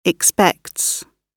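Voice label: "expects", British English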